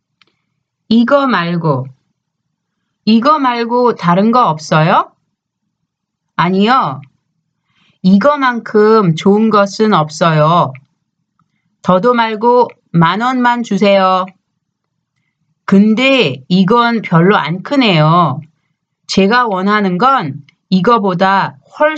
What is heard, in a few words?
conversation; K-Pop Volume 3